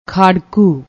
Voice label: "khadkoo", Punjabi